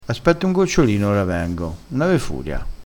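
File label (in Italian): "gocciolino", vocabolario dialettale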